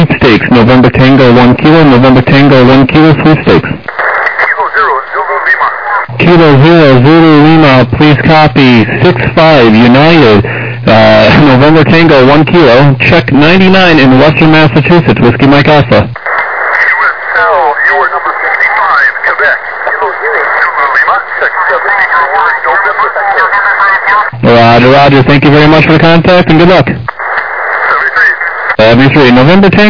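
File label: upper sideband 21MHz; SSB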